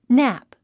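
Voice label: "nap", English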